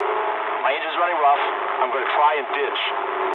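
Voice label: damaged engine mood low, speech English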